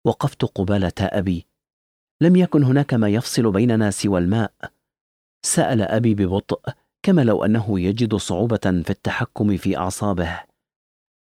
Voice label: arabic audiobooks